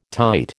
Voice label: "tight", American